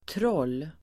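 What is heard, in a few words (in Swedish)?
"troll", Swedish; Uttal: [trål:]; troll substantiv, troll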